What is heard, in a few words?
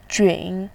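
"jun", Mandarin, third tone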